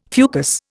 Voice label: "fucus", Indian